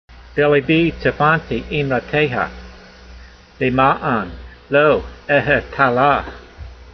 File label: voice, hebrew language; Psalm 119:11; beleebee tsafantee eemrateyha, le'maan lo ehheta-lah